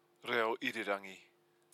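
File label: "reo irirangi", Māori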